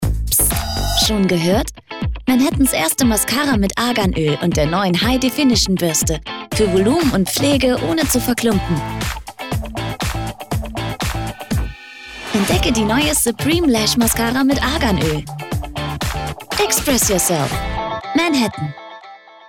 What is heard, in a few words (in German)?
Neutral; Fremdtake